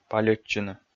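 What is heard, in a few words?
"пальӧдчыны", Komi, male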